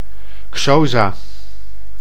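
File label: "Xhosa", Dutch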